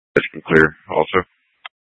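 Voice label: Incident Tac 1, Scanner audio recording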